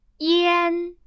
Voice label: "ian", Chinese